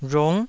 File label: "rhung9", taiwanese